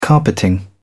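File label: "carpeting", English, British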